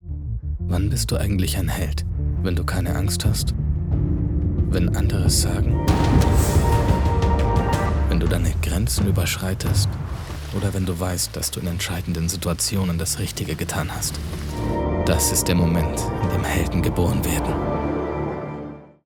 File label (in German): WERBUNG; HAIX